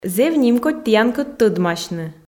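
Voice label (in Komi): зэв нимкодь тіянкӧд тодмасьны, Komi, female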